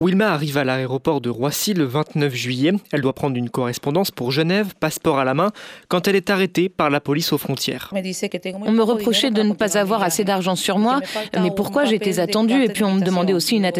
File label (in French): Reportage radio